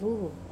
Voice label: "ruru", lake, wamesa

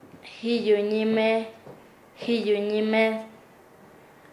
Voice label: "desconocer", Nasa Yuwe

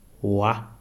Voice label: "hear", Kalanga

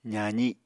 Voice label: "zazi", Apatani

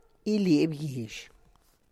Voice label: to dry the corn plants, Tlacochahuaya Zapotec; (Spanish) amarrar zacate; iliby gizh

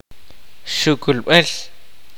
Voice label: "altar", kaqchikel

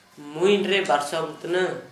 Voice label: "mmuj-ndre barsoŋ=tǝnǝ", Gta, Dialect: Hill; speaking nasally

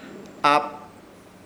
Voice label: "Hap", Ishir Chamacoco; y o primero

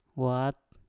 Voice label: "wat", Mpur; bahu

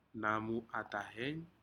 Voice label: "namu atahen", Aneityum; shellfish

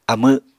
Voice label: "amw", Apatani

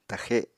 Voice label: "taxe", apatani

